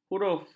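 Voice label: "huruf", Malay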